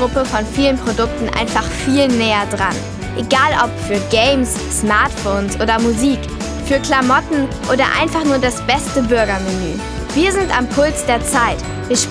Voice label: Werbung Teenagerstimmen

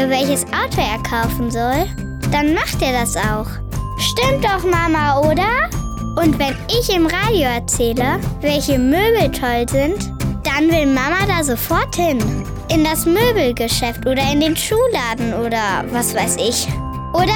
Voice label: Werbespot Kinderstimmen

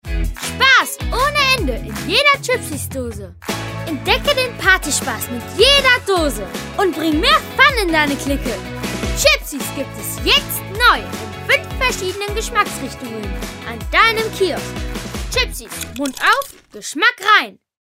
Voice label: Werbung; Chipsies

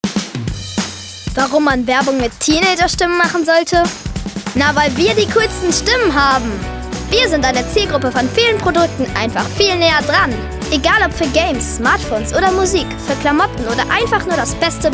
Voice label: Werbung Teenagerstimmen

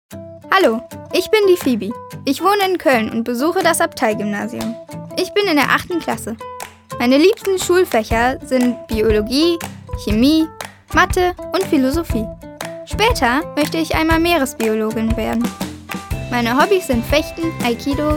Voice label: Selbstvorstellung DE

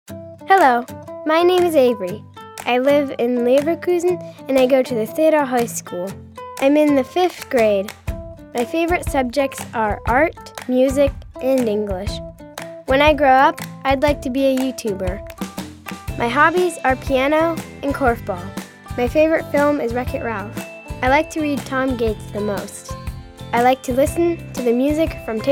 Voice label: Selbstvorstellung EN

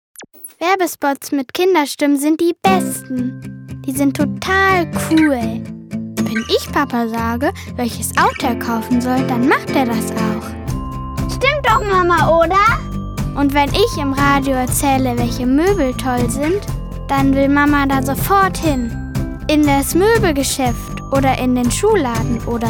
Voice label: Werbung

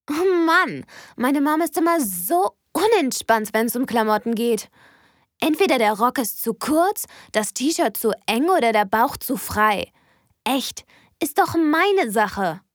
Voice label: Rolle Werbung

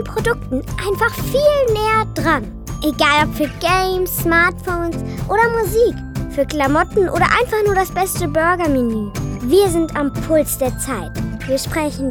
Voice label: Teenager Stimme

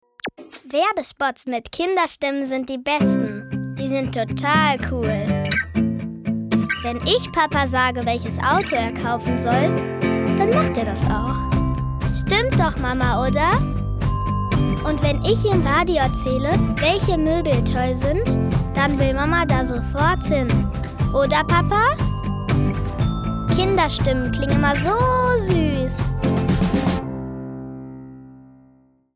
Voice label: Werbung Kinderstimmen

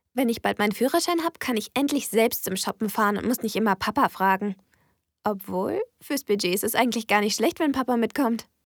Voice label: Rolle Werbung

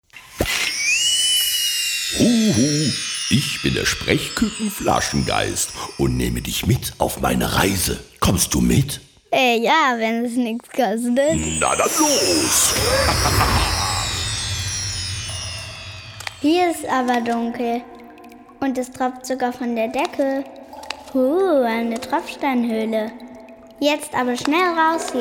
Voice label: Hoerspiel

